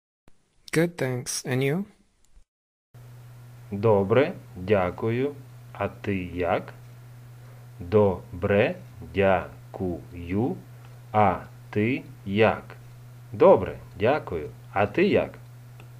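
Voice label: Ukrainian Good thanks and you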